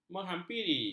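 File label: "menghampiri", Malay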